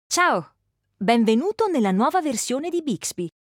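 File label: onboarding provision greeting Italian; Bixby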